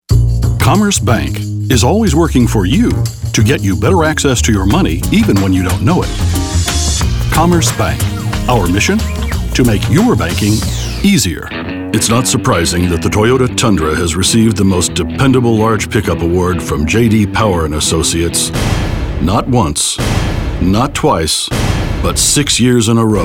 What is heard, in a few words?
Commercial